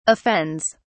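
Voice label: "offends", British English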